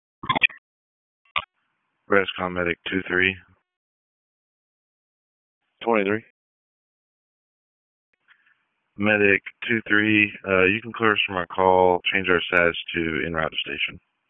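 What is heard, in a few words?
Wake County EMS Dispatch, p25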